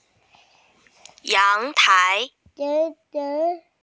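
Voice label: "balcony", Mandarin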